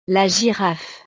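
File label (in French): "la giraffe", French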